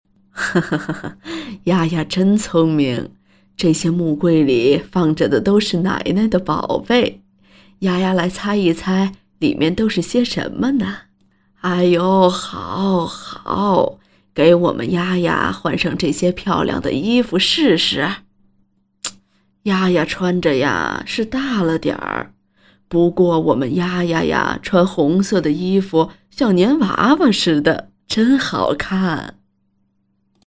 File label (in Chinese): 奶奶60岁自然